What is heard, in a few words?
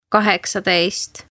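"Kaheksateist", Estonian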